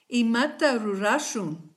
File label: sorry, kichwa